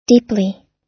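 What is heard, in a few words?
"deeply", US English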